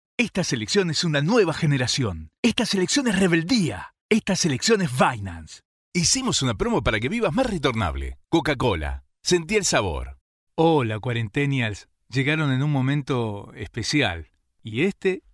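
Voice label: Spanish Latin America male voice over, sales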